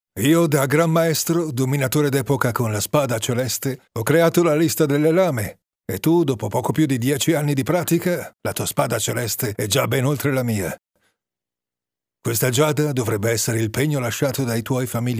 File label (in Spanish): locutor italiano italian voiceover; Italian voice artist, locutora italiana de doblaje. Locutor italiano doblaje